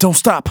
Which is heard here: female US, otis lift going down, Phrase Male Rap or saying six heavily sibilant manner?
Phrase Male Rap